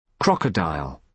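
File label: "crocodile", British English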